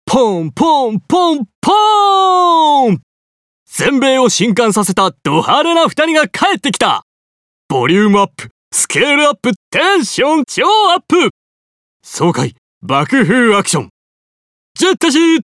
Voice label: 映画予告編

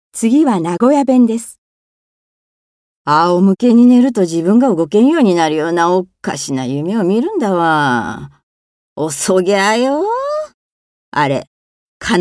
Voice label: 方言

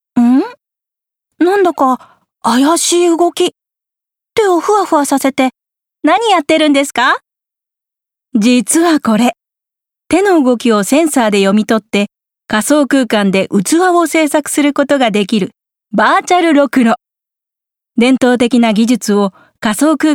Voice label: 情報番組ナレ

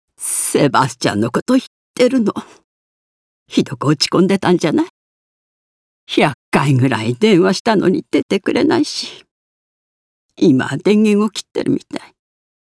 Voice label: 息子と連絡が取れず取り乱す

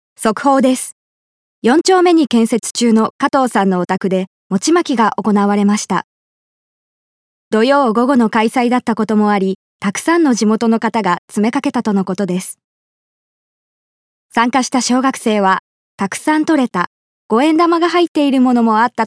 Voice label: ニュース

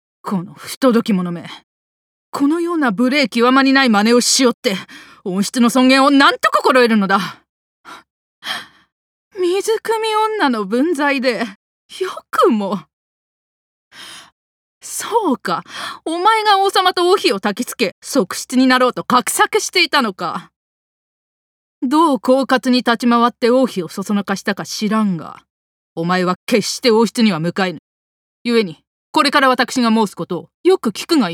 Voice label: 韓流ドラマ女官

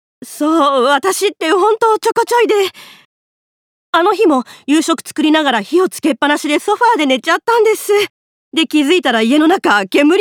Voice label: 落ち着きない20代

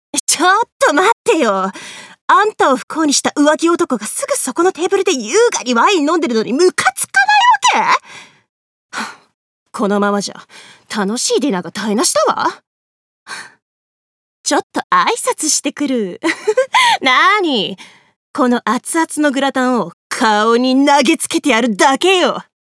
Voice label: 気の強い大学生

